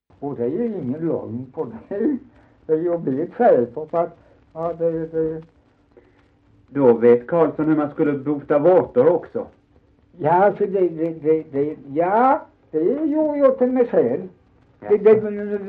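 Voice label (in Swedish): Dalby Skåne, dialekter; Folkminnen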